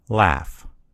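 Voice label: "laugh", US English; gcompris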